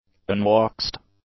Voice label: "unwaxed", english; adjectives